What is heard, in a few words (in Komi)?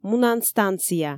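"мунан станция", Komi, female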